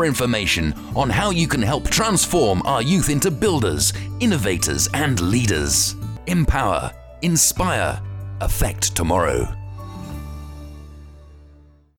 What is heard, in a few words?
Coporate English communication skils